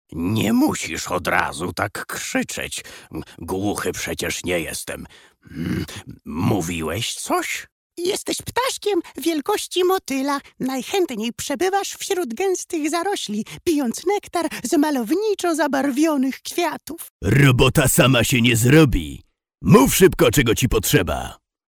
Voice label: male polish voice over talent character